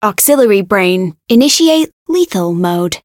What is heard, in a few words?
Voice variable chant